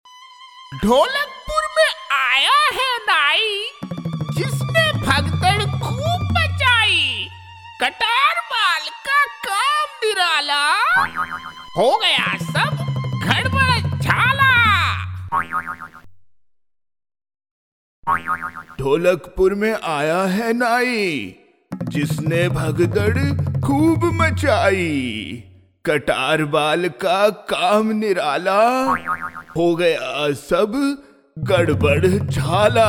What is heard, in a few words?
Cartoon Character (Hindi), male voice